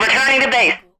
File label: female military radio voice returning to base